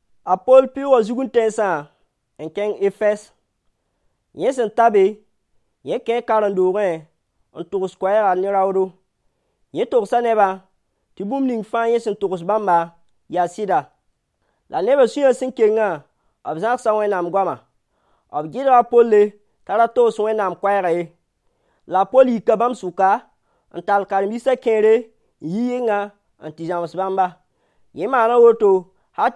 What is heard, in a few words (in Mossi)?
Mossi Moore; God Works in Ephesus